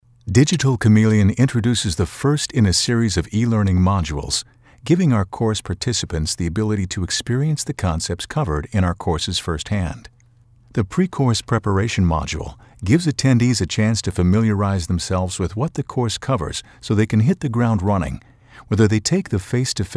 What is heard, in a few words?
corporate